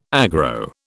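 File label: "aggro", British English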